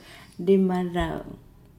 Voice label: "five hundred", Nukuoro

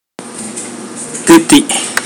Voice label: "dog", pohnpeian

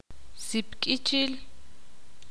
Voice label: ixil; hincharse

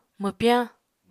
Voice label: "mwpyañ", Apatani

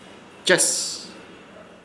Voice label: "Ches", Ishir Chamacoco; picar

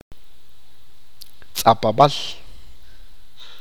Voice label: Jail, achi

